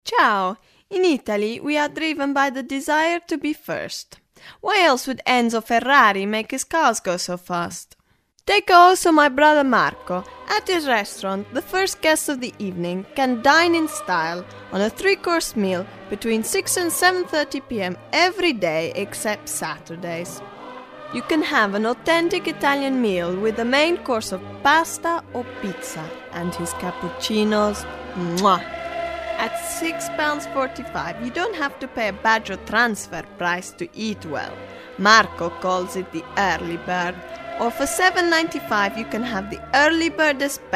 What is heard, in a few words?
ad; bfm jingles ads RSL 1995